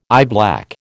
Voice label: "eyeblack", American